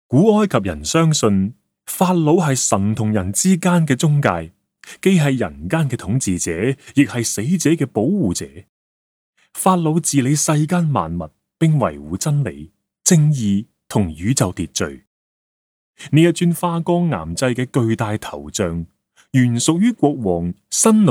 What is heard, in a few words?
CAN, audio guide; Egypt; 辛努塞爾特一世巨像頭部（被拉美西斯二世挪用）; 古埃及文明大展